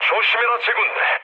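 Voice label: Korean near waypoint mood low; War Thunder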